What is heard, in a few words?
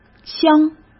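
"乡", Mandarin Chinese; chinese flashcards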